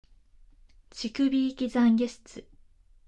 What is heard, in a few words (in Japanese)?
タイトルコール